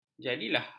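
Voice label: "jadilah", Malay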